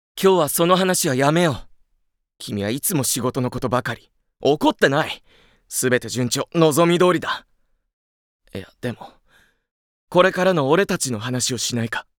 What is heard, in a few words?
Dialog